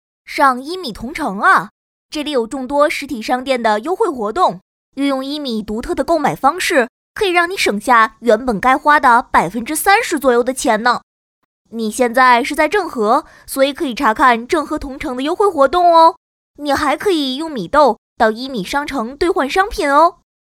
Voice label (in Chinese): 女 男孩